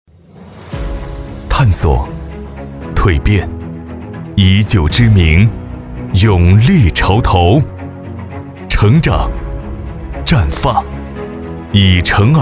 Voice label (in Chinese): 男 大气成熟 酒业公司宣传片